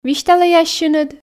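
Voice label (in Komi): висьталӧй ассьыныд, Komi, female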